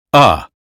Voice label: us phonetics sound cup; 英语音标发音（剑桥版）